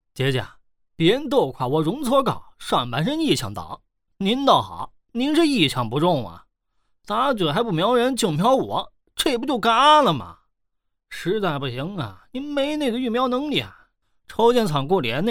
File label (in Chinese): 天津方言 男 方言 天津话 游戏信息流; 方言配音